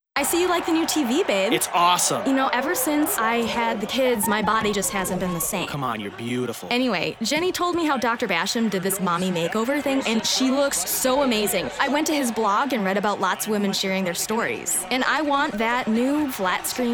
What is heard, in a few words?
radio spot